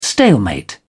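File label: "stalemate", British English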